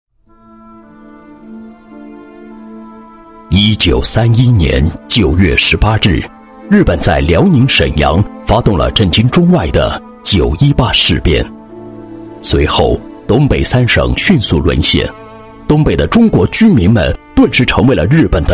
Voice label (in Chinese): 男 历史 讲述低沉, 国语男声配音